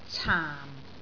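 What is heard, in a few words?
"caam4", Cantonese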